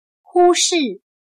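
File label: "忽视", Chinese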